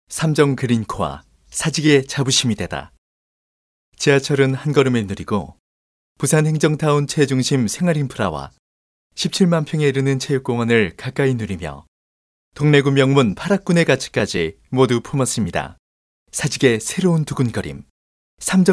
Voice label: 스마트하고 감성있는 아파트 광고

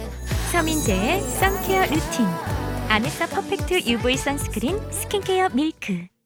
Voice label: 화장품 온라인 광고 20대

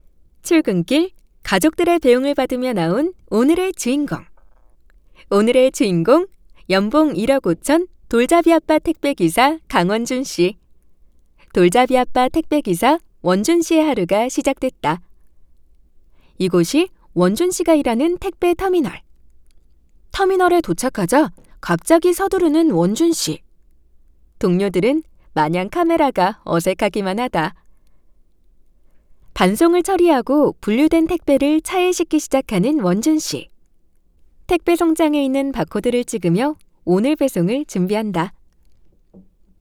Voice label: 나레이션 편안한

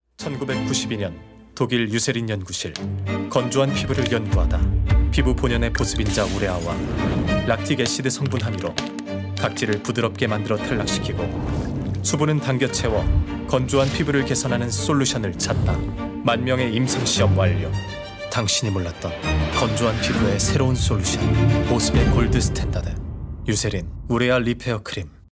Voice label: 스마트한 30대; 유세린크림; 청홍스튜디오

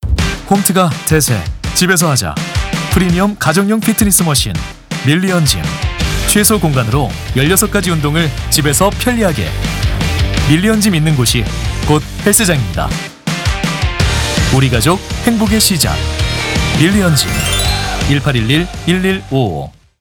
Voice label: 깔금하고 도시적인 광고

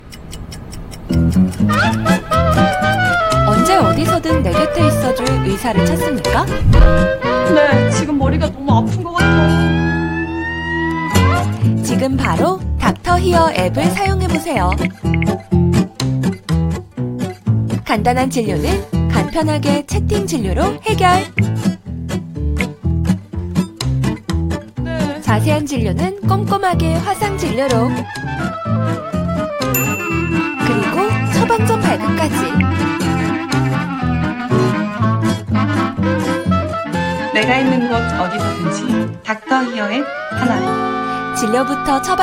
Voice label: 광고 나레이션; 메디케어

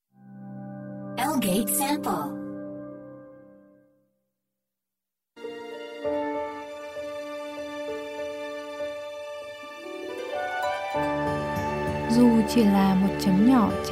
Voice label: 베트남 여 낮은톤

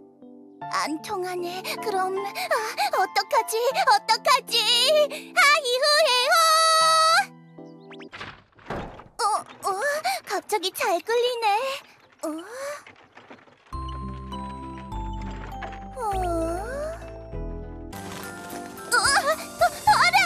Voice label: 착하고 나긋한 맹한 소녀

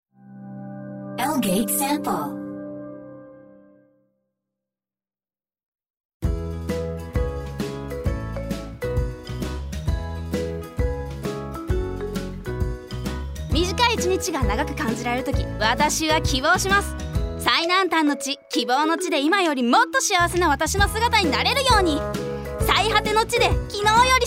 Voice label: 일본 여 밝은 톤

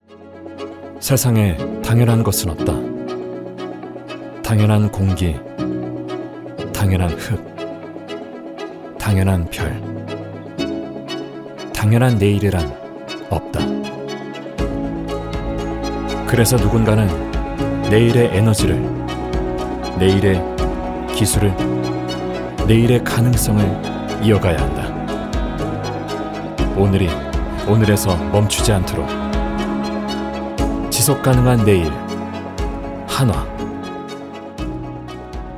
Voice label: 광고 기업홍보 담담한 결의에 찬

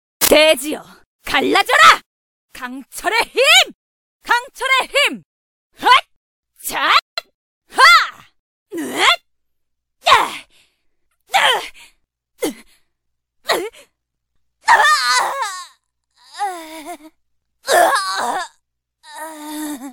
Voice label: game 20대 여 터프한 강력한 거친

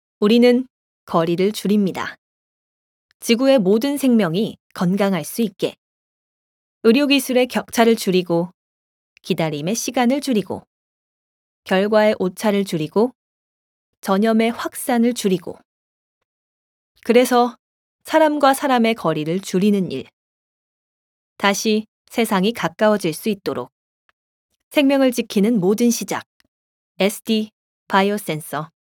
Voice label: 기업 소개 멘트

